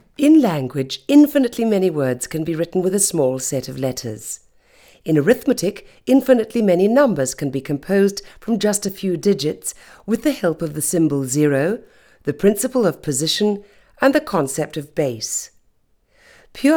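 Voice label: Speech Female; Emitted sounds; Test Recordings Arrays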